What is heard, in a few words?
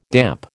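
"damp", American English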